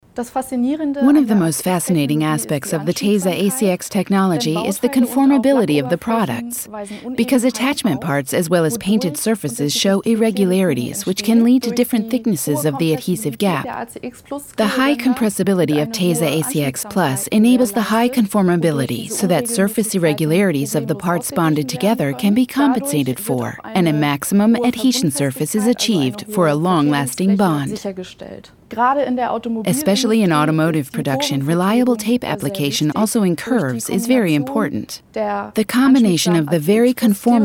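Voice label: US English, Neutral; Fremdtake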